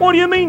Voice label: what do you mean, male; questions